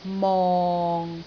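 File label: "mong6", Cantonese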